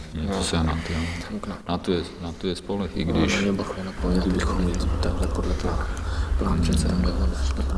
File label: dialog; Mafie 1 cz